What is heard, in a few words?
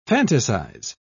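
"fantasise", British English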